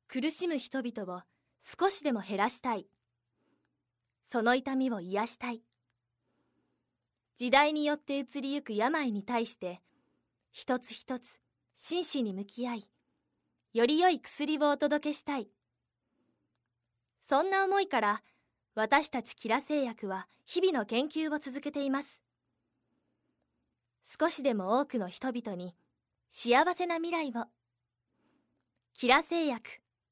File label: narration